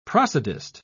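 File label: "prosodist", British English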